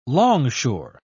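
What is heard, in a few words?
"longshore", British English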